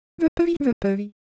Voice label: Korean, Ideo Phones